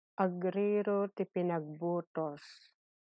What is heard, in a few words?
Ilocano; mistakes of voting; agriro ti pinagbutos